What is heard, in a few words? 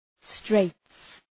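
"straits", English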